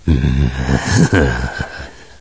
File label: snarl; svencoop; echoes; gman